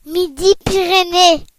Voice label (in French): "midi-pyrenees", fr; france region; gcompris